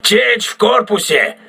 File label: voice message hull breach, crew ships russian